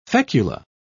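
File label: "fecula", British English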